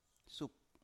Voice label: "sup", Biak

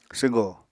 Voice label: "swgo", apatani; Material Culture

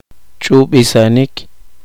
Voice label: poqomchi; suavizar

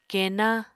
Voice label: "keená", Apatani

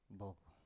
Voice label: "bov", Biak

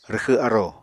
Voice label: "rwxw arò", Apatani

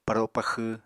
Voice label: "paro paxw", apatani

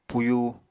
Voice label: "puyu", Apatani

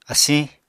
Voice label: "asiñ", apatani

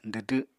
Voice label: "dwdw", Apatani

